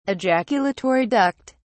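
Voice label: "ejaculatory duct", British English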